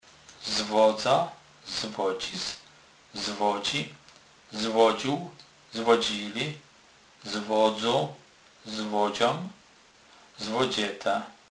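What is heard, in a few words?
"zwodzec", Polish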